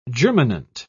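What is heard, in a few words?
"germinant", British English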